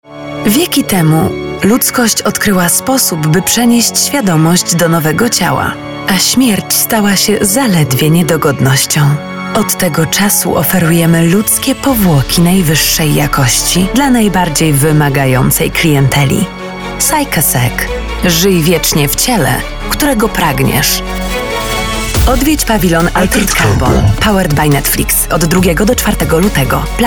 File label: radio; netflix altered carbon